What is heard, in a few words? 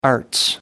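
"arts", English, British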